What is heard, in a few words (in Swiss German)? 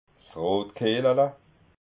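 "rotkehlala", Alsatian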